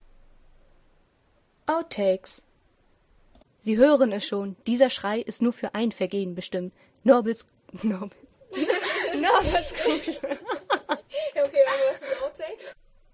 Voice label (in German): Hoerspiel